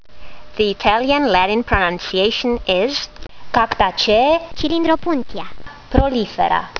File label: latin; Cylindropuntia prolifera